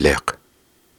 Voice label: Soft Syllables and Imaginary Words, Viking Vocal